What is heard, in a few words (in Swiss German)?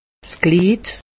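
"glied", Haut Rhin; medecine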